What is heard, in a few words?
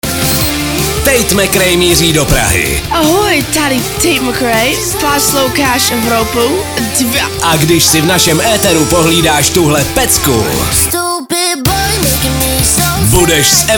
liner; evropa2